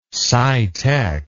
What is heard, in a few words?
"scitech", English